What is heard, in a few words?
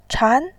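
"chan", Mandarin Chinese, second tone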